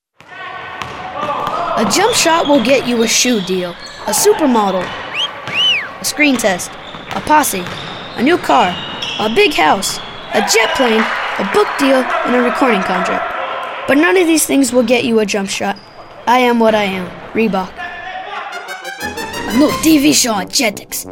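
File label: English, kids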